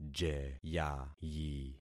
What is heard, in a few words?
"jeyayi", concat syllables; Affixing experiment